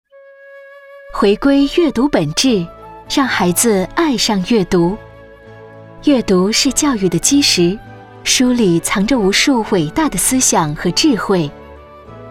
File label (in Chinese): 宣传片年轻亲切; 云上阅读